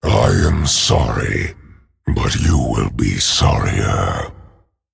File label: Male Dragon Sorry